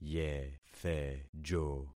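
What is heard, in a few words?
"yethejo", concatenated syllables; Affixing experiment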